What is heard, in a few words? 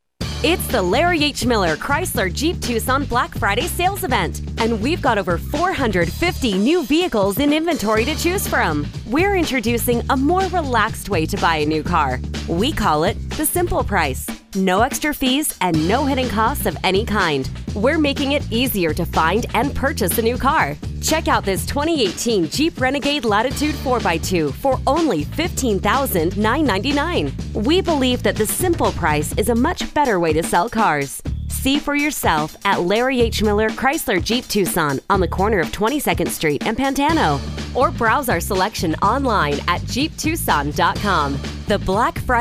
Friendly; Car Dealers